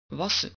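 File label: "wassen", Dutch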